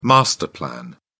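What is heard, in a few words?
"master plan", British English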